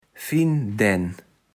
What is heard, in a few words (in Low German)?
"Finndänn", Platt